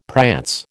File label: "prance", American